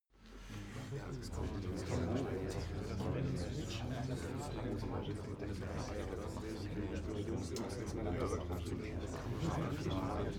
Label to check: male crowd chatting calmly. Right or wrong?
Right